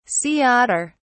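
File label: "sea otter", English, British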